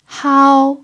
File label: "hao1", chinese